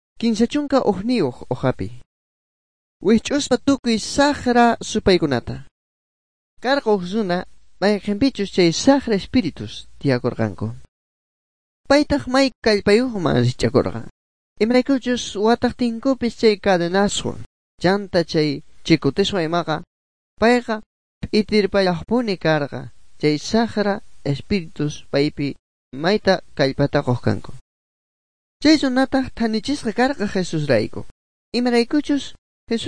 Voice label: good news quechua bolivian sucre; bible